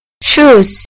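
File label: "shoes", British English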